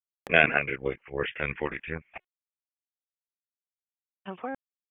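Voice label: Police Tactical, p25; Wake Forest Police Tac 1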